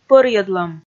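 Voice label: "пӧрйӧдлӧм", Komi, female; kpv audio dict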